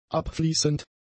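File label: "abfließend", German; adjectives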